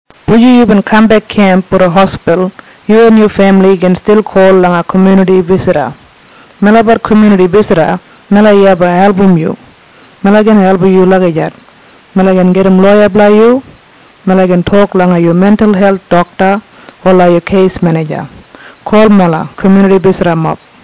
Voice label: Kriol